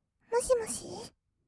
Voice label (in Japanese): "もしもし", kawaii voice; 挨拶